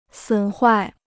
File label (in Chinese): "损坏", Chinese; 损坏 (sǔn huài); to damage; to injure